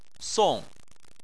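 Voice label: "song", Chinese, fourth tone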